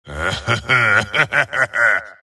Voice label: wraith death; dota; skeleton king